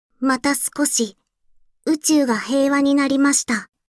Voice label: Voice victory